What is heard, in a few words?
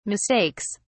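"mistakes", British English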